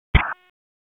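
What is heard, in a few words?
EMS Dispatch; wake; p25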